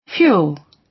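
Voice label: "fuel" British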